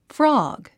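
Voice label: "frog", English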